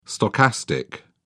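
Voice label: "stochastic", English, British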